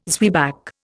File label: "zwieback", Indian